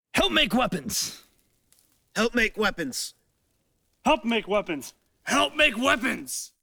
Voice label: EXT GROUP Call Out Help Make Weapons, Battle Crowd; Coll Anderson